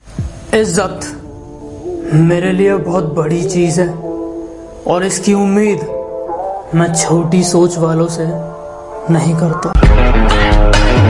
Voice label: Shayari Ringtone